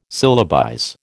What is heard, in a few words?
"syllabize", American